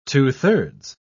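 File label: "two-thirds", English, British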